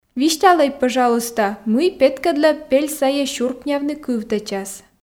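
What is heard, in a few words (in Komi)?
висьталӧй пӧжалуйста мый петкӧдлӧ пель сайӧ сюркнявны кывтэчас, Komi, female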